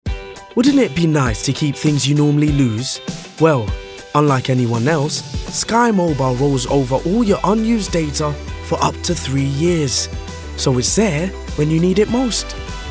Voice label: english commercial; sky mobile